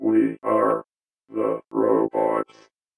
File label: VOICEFX ELCTR 140; Techno2